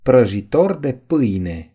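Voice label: "toaster", Romanian; gcompris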